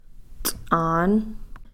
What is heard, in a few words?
"ts'aan", Cow Creek